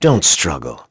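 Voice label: Vox Skill, voices heroes English; kingsraid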